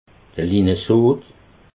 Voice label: "linesoot", alsace

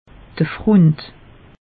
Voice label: "front", alsace; meteo

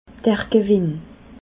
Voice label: "gewenn", alsace

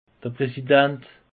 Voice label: "prasidant", alsace; peche

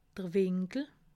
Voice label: "winkel", alsace

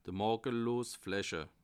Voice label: "makellos", alsace

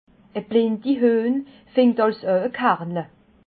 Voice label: "blindi", Alsace

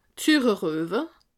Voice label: "sure", alsace

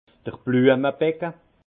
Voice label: "bluemebecke", alsace; vin; bac à fleurs

